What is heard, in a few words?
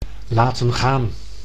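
"laten gaan", Dutch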